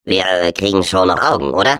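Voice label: Defective Turret, German; sp sabotage factory; defect pass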